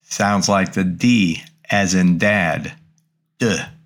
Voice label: Greek Consonants Delta; greek grammar